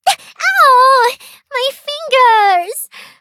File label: defeat, en; cookierunwiki